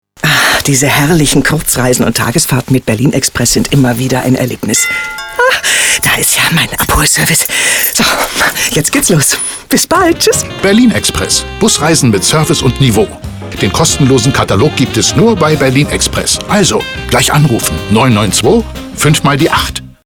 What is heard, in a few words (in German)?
Tourismus Radiowerbung